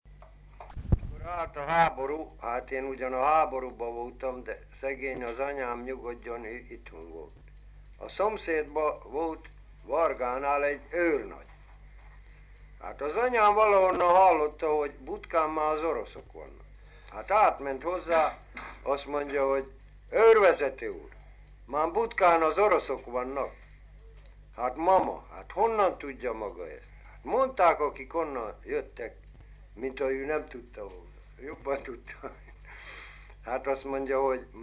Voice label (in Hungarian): nyelvjaras gyujtesek